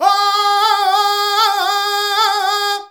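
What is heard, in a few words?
voice, Foreigns; Sound & Vision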